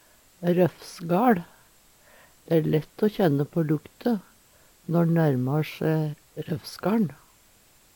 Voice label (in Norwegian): rofsgal, Numedalsmål